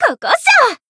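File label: attack, Japanese; millecha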